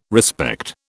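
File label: "respect", British English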